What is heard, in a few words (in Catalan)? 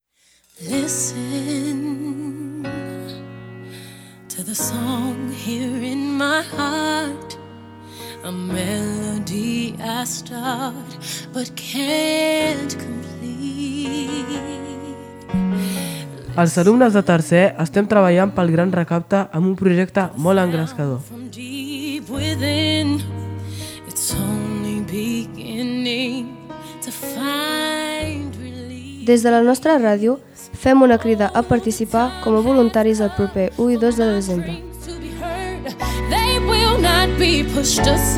audio falca banc dels aliments amb música